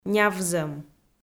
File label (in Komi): "нявзӧм", Komi